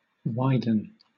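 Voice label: "widen", British English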